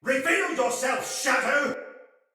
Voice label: Sight Lost Shout, Soldier Male; Sinecure Soldier Male A

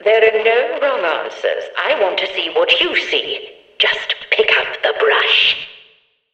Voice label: Background Announcements; Warframe; Shadowgrapher; Follies Hunt

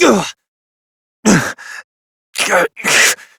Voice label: Struggle Long Adult; New War; Operator

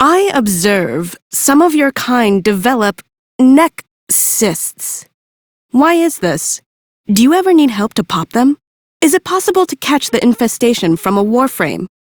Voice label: Idle, Female; en; Warframe; Railjack Crew; Cephalon Suda

